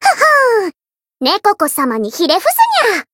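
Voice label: Voice win Japanese; nekoko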